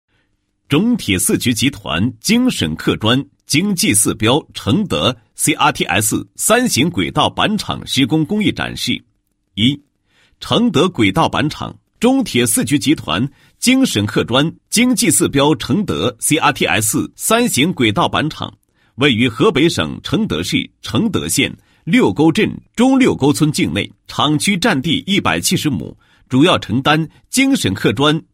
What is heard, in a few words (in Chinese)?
男 工程解说, 轨道板场施工解说